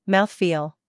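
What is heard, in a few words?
"humor", British; mouthfeel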